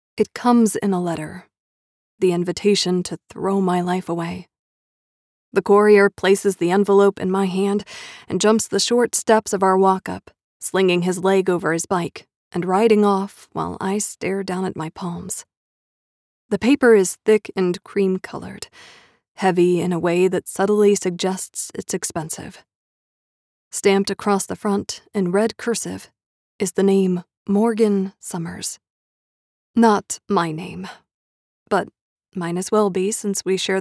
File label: feminine voices